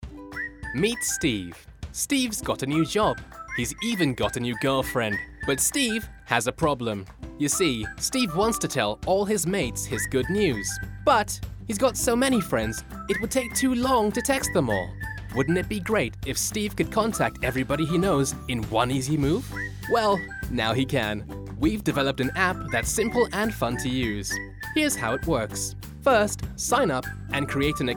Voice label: Explainer video

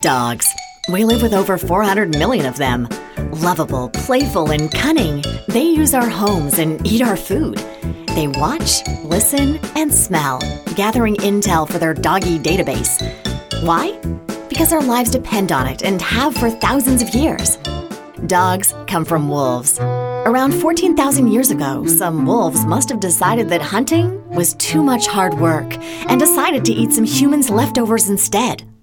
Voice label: Childrens Show Narration

